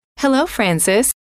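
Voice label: "hello", female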